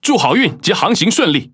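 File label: Speech Quick Commands Good Luck, Taiwan; World Of Warships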